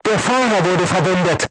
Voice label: voice message driver wounded, crew tanks german

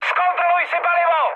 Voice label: tank damaged, speech cze, mood high; War Thunder

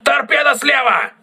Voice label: voice message torpedo left, crew ships russian

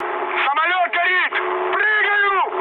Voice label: on fire, mood high, speech ru; War Thunder

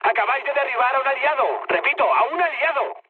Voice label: player killed ally, mood med, speech; War Thunder

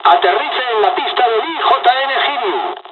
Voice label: jpn landing, dialogs spanish; midway; War Thunder

